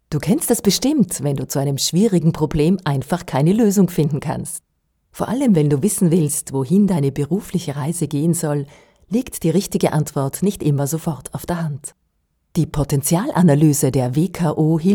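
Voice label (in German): Erklärfilm; potenzialanalyse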